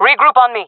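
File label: request regroup, jungle female; vo; agents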